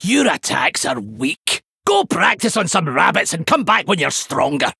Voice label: Male Dwarf In Game Player Loss; Vanndar